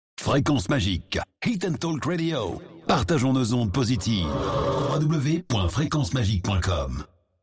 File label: Drop ID; Frequence Magic